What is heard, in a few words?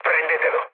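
Italian attack position mood low, speech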